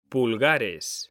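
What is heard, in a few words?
"pulgares", Spanish, male; mekanta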